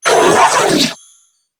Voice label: Death Grineer Female; en; New War; Narmer Grineer